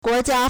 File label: "guo2jia1", Chinese